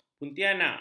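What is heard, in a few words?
"puntianak", Malay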